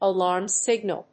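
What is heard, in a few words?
"Alarm signal", English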